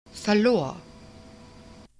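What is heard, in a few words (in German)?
"verlor", German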